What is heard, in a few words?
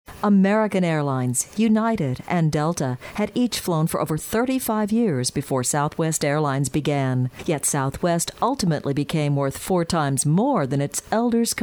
Narration Female, Narration, Corporate, Documentary